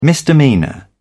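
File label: "misdemeanour", British English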